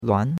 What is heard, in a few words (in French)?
"luan2", chinois; Cours-de-Chinois